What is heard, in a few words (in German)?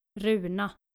"runa", skandinavische maedchennamen